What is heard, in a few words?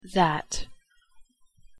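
"that", English pronunciation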